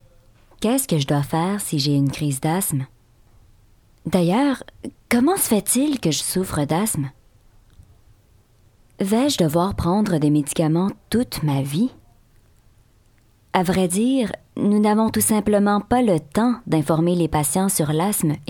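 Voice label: Canadian French, Narration; GoLocalise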